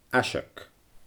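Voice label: "aiseag", Scottish Gaelic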